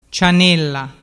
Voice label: "cianella", dialettu

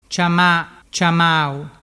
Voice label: "ciama ciamau", dialettu

